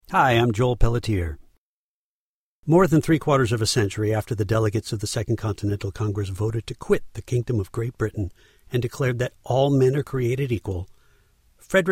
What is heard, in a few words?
voiceover demo